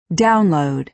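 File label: "download", American English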